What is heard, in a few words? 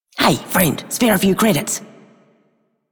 Female Repo, Female Two, en; Dialog; Warframe; Solaris Venus